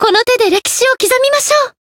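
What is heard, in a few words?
variable chant, Japanese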